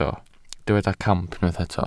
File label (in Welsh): "camp", Welsh